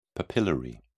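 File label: "papillary", British English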